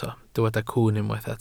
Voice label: "cwwn", Welsh